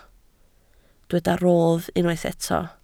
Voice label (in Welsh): "rodd", Welsh